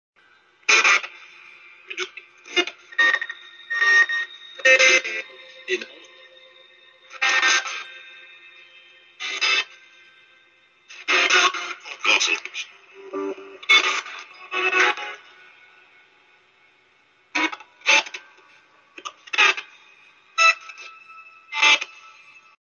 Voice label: Radio Sweep